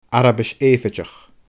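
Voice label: "Arabais Èipheiteach", Scottish Gaelic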